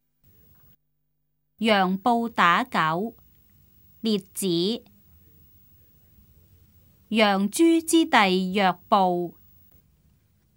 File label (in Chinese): cantonese; 楊布打狗; 粵語